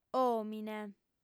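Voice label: "òmine", Sardinian, female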